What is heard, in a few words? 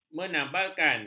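"menabalkan", Malay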